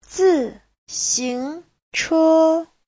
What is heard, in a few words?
"zi4xing2che1", chinese; 自行车; (danh từ) xe đạp; Giáo trình chuẩn HSK3